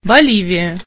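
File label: bolivia, Hebrew; gcompris-data; geography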